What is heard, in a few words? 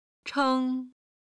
"cheng1", chinese